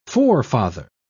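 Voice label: "forefather", en, uk British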